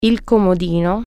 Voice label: nightstand, italian

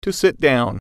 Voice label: "sit down", English

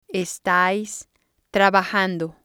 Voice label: "estais trabajando", spanish

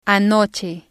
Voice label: "anoche", spanish

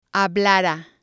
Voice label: "hablara", spanish

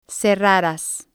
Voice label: "cerraras", spanish

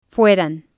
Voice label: "fueran", spanish

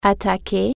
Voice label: "attack", french

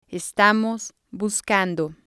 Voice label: "estamos buscando", Spanish

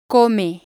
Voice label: "come", spanish

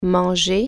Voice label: eat, french

